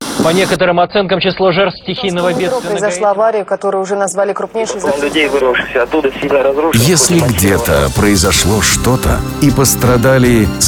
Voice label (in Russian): радио-ролик; Рекламная кампания TACK 2010